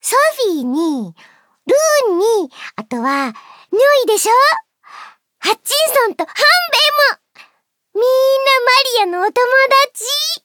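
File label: info, Japanese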